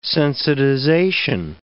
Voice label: "sensitization", English